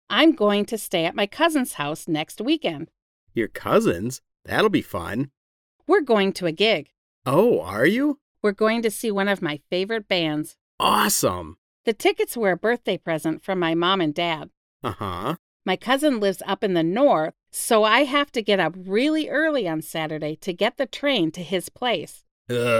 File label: conversation; Unit 7